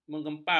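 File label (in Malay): "mengepam", Malay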